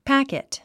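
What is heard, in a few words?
"packet", 英単語 発音; pǽkit パァケット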